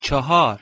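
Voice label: "chahar", Persian, male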